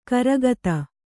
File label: "ಗುರಿಗುತ್ತು", Kannada